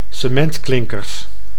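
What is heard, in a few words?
"cementklinkers", Dutch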